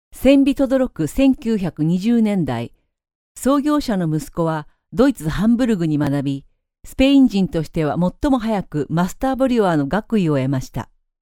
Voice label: japanese female; dictor